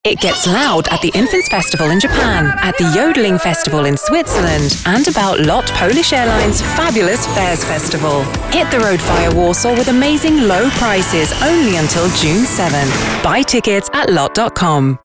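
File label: English British, female, fast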